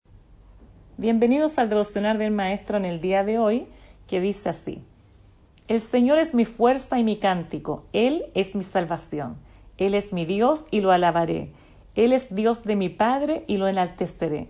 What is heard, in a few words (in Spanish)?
devocional